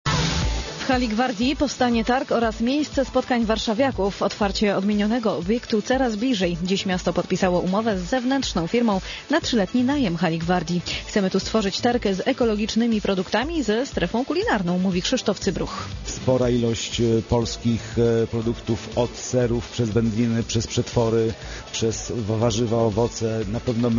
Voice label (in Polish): wiadomosci lokalne, radio